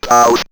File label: Robot House, robot vox; X-Static Goldmine